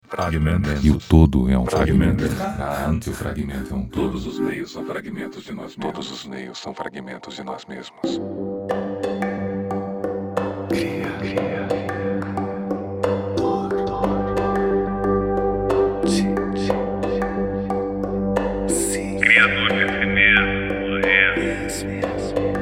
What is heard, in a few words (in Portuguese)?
portfolio poetico experimental